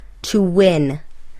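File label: "to win", English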